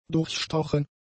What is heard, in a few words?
"durchstochen", german; adjectives